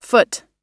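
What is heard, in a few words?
"foot", US English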